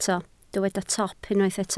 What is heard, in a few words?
"top", Welsh